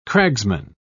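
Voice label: "cragsman", British English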